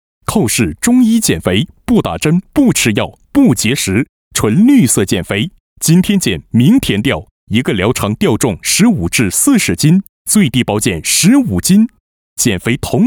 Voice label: 促销干音 中医减肥 紧凑怒气

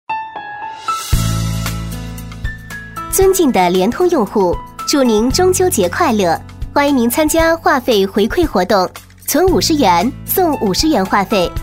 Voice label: 【彩铃】尊敬的联通用户

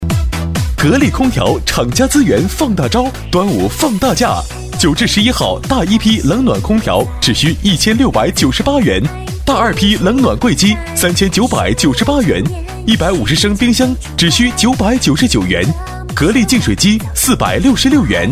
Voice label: 促销 格力空调

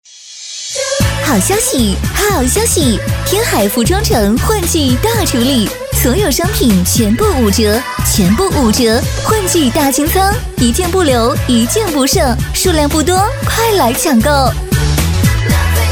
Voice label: 促销 服装城; 天海服装城

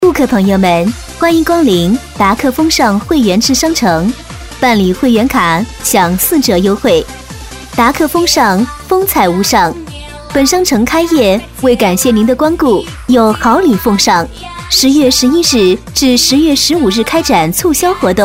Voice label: 广告; 达客丰尚

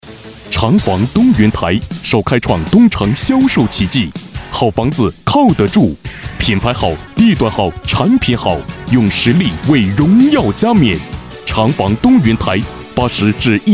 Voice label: 促销 地产激情利落

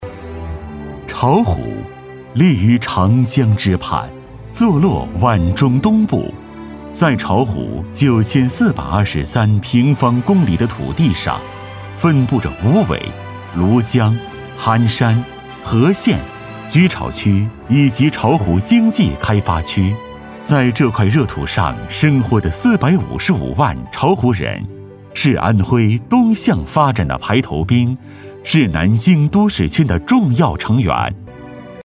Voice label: 专题 城市宣传片; 巢湖

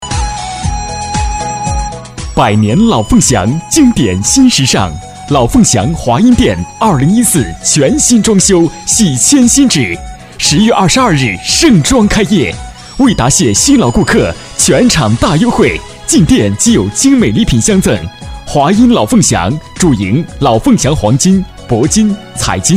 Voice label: 【促销】; 老凤祥

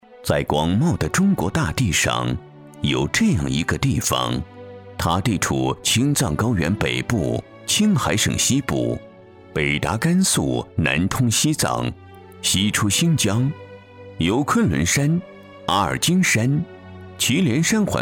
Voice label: 舒缓大气; 昆仑山脚下的红门卫士

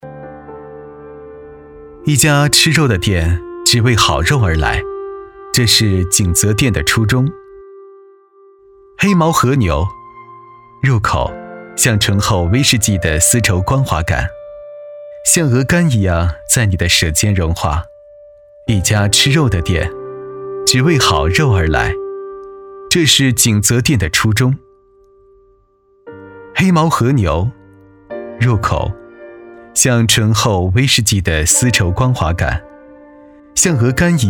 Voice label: 【专题】牛肉（年轻讲述）

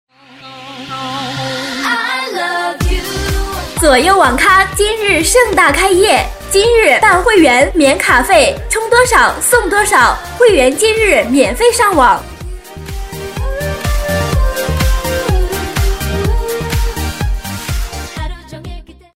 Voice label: 促销; 左右网咖

